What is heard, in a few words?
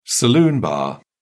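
"saloon bar", British English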